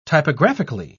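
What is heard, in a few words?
"typographically", British English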